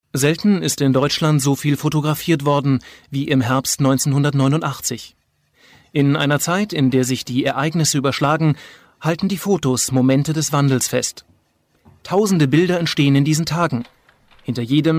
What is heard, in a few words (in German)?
Reportage; Momente des Wandels